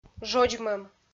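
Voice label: "жодзмӧм", Komi, female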